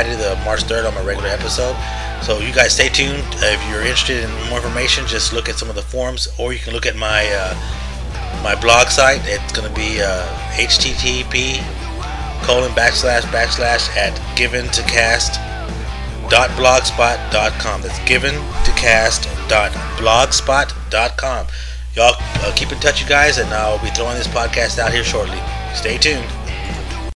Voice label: Breaking News Promo